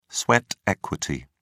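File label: "sweat equity", British English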